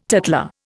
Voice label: "tattler", Indian

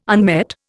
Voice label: "unmet", Indian

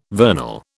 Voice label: "vernal", British

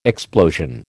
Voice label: "explosion", American

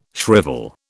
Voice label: "shrivel", British

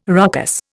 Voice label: "ruckus", Indian

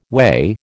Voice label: "whey", American

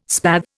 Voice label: "spathe", Indian

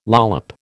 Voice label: "lollop", American

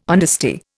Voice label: "honesty", Indian

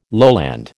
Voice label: "lowland", American

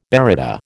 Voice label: "baryta", American

